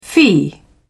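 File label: "fee", British English, dictionary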